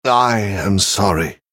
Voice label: male sorry; venthyr

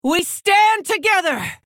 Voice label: female orc; picked

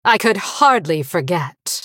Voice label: female blood elf mirror start

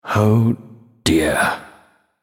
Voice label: male nightborne thinking

